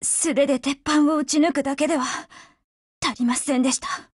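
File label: Japanese, Voice; BREAK; GF Alarm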